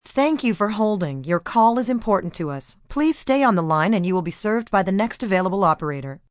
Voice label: Thanks Holding, Voicemail, telephone messages, female; Voice Box